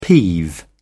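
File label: "peeve", English, British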